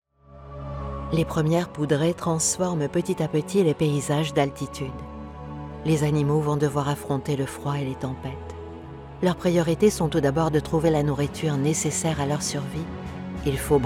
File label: french narration